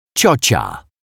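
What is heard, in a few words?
"ciocia", Polish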